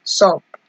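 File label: "sup", Nigerian Pidgin, female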